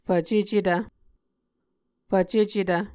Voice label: "fvcecetv", Muscogee

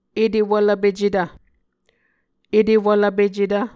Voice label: "etewvlvpecetv", Muscogee

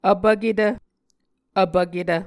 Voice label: "vpvketv", muscogee

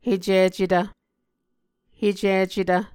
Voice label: "hecicetv", Muscogee

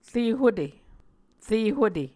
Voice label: "re hute", muscogee

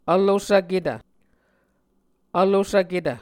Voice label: "vlosvketv", Muscogee

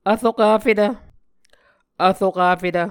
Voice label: "vrokafetv", muscogee

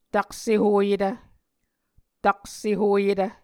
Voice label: "taksehoyetv", Muscogee

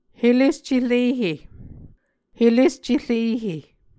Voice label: "helescerehe", Muscogee

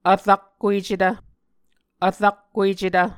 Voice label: "vrakkuecetv", muscogee; Mvskoke Words with Repeated Consonants; Martin, Jack B., and Margaret McKane Mauldin. 2023. A Dictionary of Creek/Muskogee

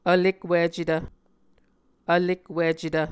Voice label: "vlekwicetv", Muscogee

